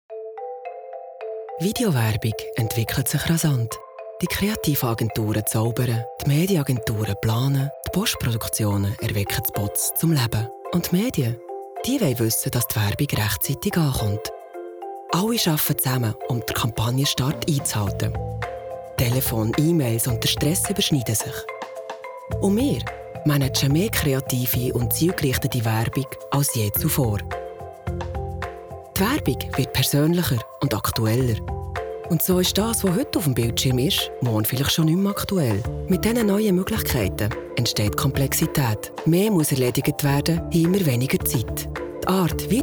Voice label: deutsch; Group IMD CH; LOFT VOICES

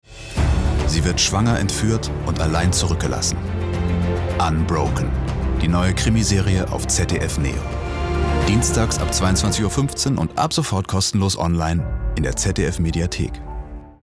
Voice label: Trailer; ZDF NEO

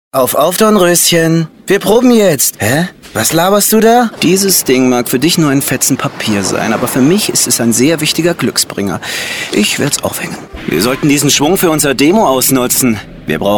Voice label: Animation cool rauchig jugendlich frech rauchig rockig; Aquarian Age

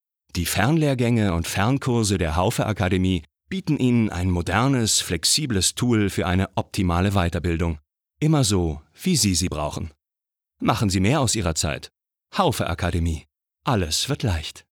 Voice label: imagefilm; haufe akademie; LOFT VOICES

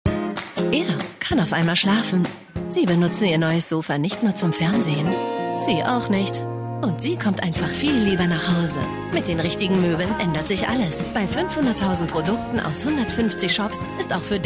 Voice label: Werbespot

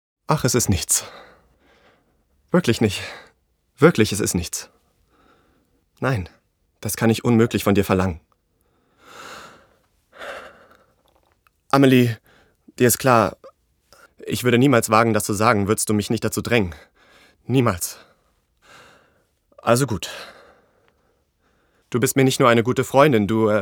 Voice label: Synchron

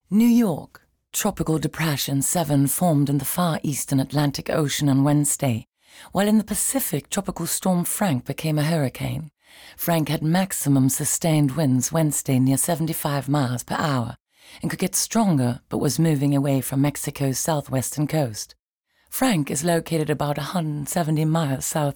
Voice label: us englisch

